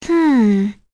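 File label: Vox Think, English; Leo; voices heroes; kingsraid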